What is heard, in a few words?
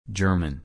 German